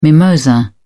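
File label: "mimosa", British English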